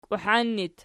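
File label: "kiuhan" locative, Archi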